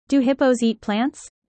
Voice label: user fast; Animal friends